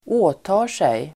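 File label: "åtar sig", Swedish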